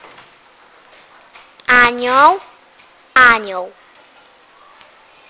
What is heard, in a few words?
"angel", "aniol", Polish